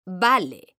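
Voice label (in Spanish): "VALE", Spanish, female; mekanta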